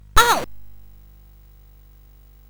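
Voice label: Bouncy Balls speech, MOS 8580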